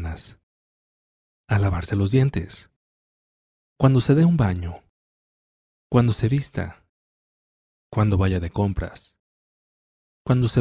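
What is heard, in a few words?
Spanish, mindfulness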